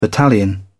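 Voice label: "battalion", British English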